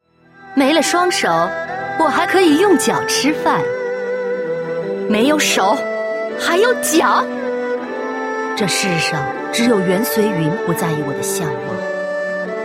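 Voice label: female middle-aged and elderly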